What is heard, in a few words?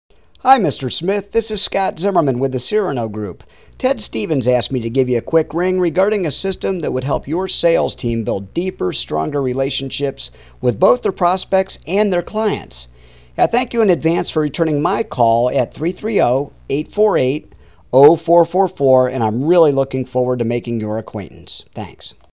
Voicemail; Relater